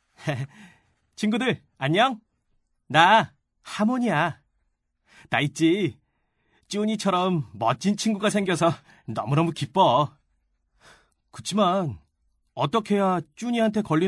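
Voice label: Korean male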